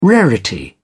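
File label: "rarity", English, British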